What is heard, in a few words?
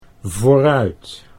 "vooruit", Dutch